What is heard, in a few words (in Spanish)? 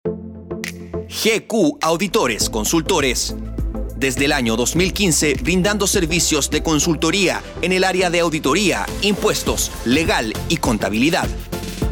Publicidad Radio; GQ